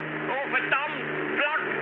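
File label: German damaged aa mood high, speech; War Thunder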